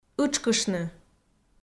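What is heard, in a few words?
"ытшкыштны", Komi, female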